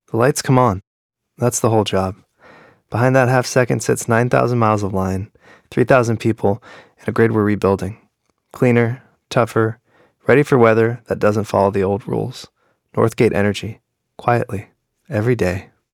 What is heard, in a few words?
corporate energy; utility warm understated